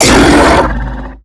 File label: Octopede Pain; Warframe; MiTW; Battle Barks